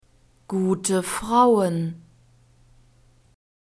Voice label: "gute frauen", German